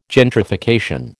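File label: "gentrification", American English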